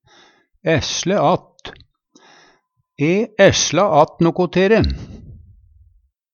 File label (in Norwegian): esle att, Numedalsmål; spare, setja til sides